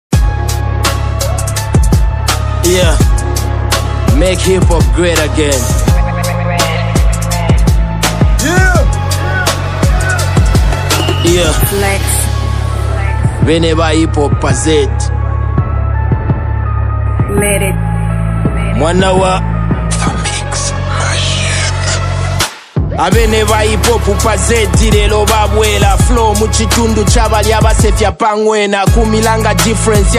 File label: Hip Hop Free Style; Make HipHop Great Again